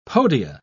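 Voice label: "podia", British English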